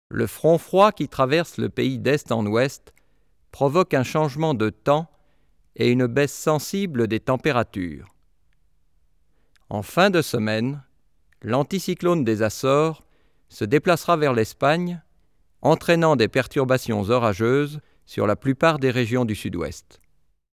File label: male french